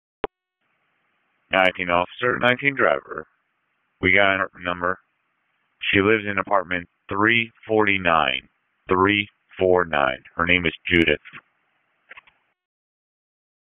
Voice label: FIRE OPS, p25; wake